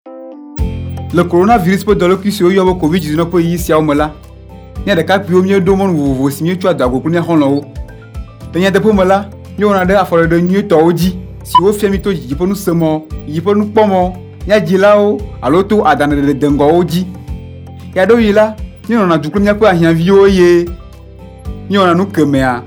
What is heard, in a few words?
Spot, Ewe